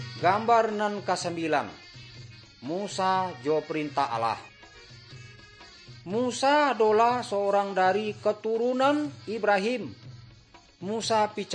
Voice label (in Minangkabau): good news minangkabau